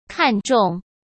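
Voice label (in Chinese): "看重", Mandarin Chinese; hsk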